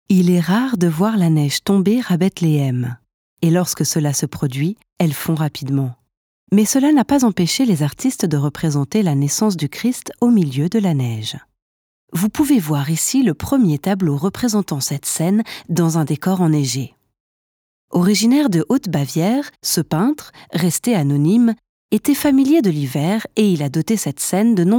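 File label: fr Museum, French; sprecher; franzoesisch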